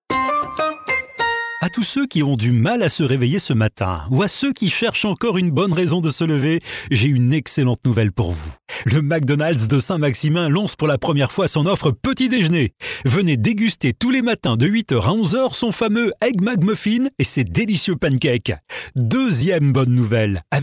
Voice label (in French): PUB VOIX OFF